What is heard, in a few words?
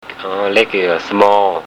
"lek" smal, Thai